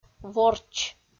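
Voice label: "ворч", Komi, female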